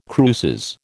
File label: "cruces", American